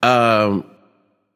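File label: "seriously", male; baronsamedi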